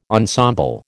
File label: "ensemble", American